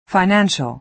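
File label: "financial", American English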